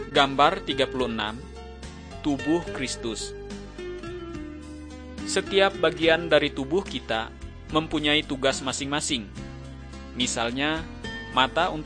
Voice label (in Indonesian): kabar baik good news bahasa indonesia